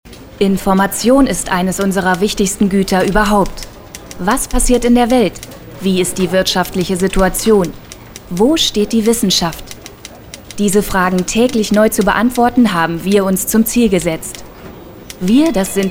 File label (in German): Imagefilm Zeitungsgruppe